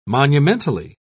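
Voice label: "monumentally", British English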